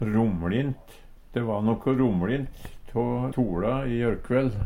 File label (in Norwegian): romLint, Numedalsmål; De va noko romLint tå toLa i jørkvell.; Adjektiv